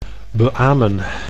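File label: "beamen", Dutch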